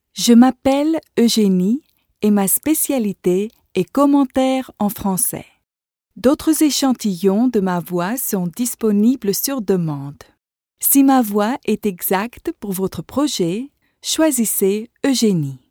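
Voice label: French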